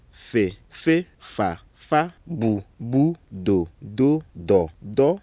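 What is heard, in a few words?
ewe low high 1 syllable, tone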